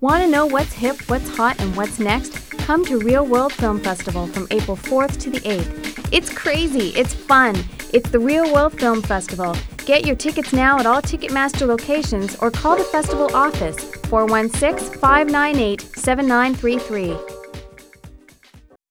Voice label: voiceover spot; ReelWorld